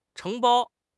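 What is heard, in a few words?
"承包", Chinese; HSK6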